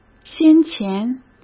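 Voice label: "先前", Mandarin Chinese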